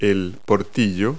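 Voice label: "loophole", English word; Multi-Lingual Talking Picture Dictionary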